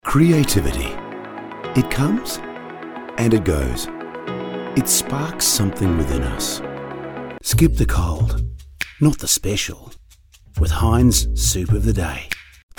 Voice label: VO natural